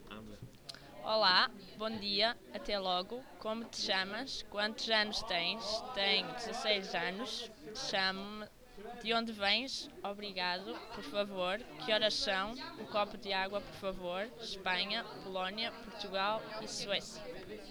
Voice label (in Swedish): portugisiska; COMENIUS